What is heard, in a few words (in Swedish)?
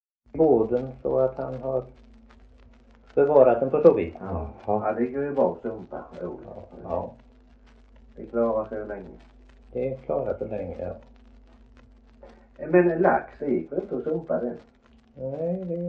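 Värö Halland, Svenska sagor dialekter; Folkminnen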